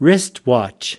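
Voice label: "wristwatch", US English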